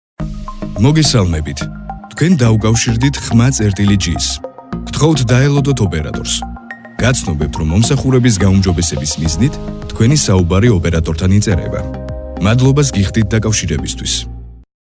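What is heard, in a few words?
Georgian, male, IVR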